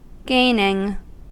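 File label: "gaining", American English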